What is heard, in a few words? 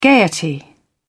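"gaiety", British English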